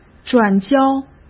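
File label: "转交", Mandarin Chinese; hsk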